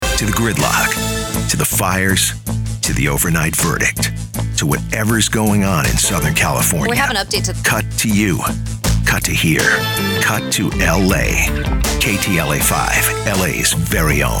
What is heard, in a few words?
men tv news image; tv affiliate